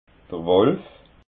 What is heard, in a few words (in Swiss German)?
"wolf", alsace